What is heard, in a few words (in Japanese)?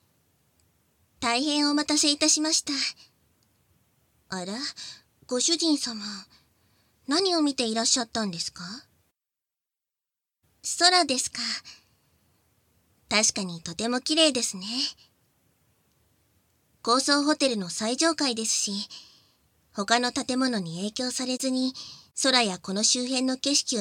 お茶で休憩（簡易モノラル編集 効果音なし）